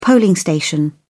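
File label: "polling station", British English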